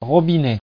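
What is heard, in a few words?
"robinet", French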